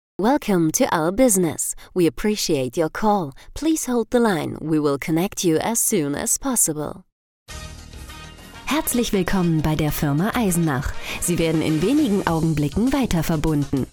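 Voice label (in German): Ansage englisch deutsch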